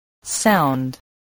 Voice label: "sound", English voice

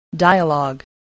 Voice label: "dialogue", spelling

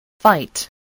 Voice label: "fight", spelling

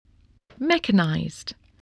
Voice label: "mechanized", spelling voice

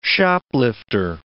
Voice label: "shoplifter", words

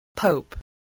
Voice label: "pope", English

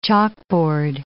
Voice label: "chalkboard", English

